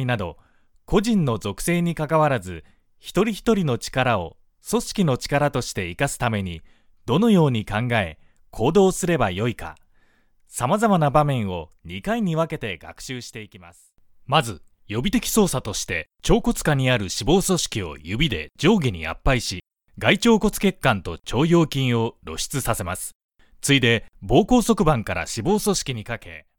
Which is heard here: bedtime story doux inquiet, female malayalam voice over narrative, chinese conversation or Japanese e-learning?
Japanese e-learning